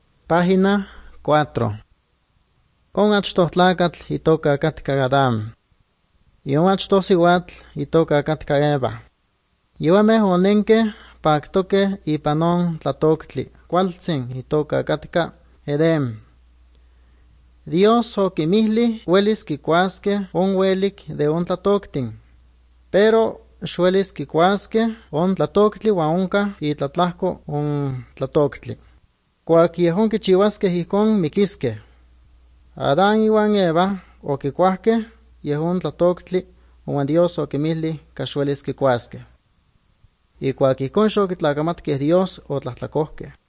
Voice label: good news, nahuatl guerrero chilapa; guerrero nahuatl the bible league nt drama

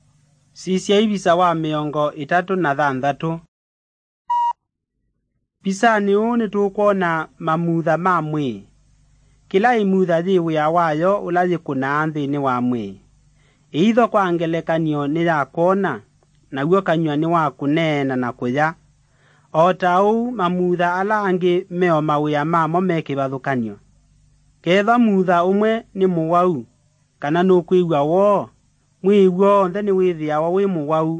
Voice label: kikamba drama; good news; bible

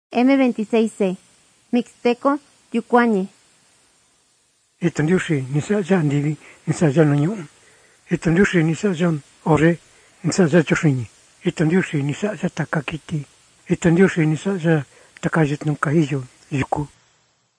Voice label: mixtec diagnostic spanish mexico

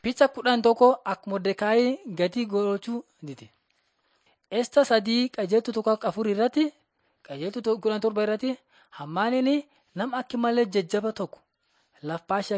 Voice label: borana sakuye

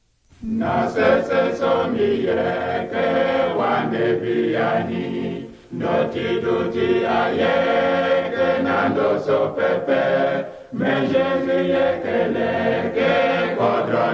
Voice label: words of life sango; sango central african republic nt drama

